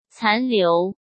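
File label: "残留", Mandarin Chinese; hsk; chinese-flashcards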